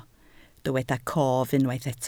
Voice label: "cof", Welsh

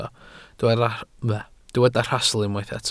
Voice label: "rhastl" Welsh

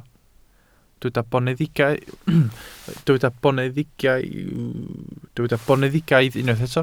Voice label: "boneddigaidd", Welsh

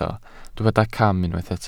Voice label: "cam", Welsh

